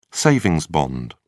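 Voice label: "savings bond", English, British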